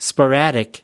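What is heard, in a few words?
"sporadic", American English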